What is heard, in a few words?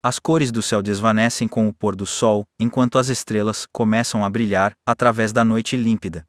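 Brazilian Portuguese; iOS 26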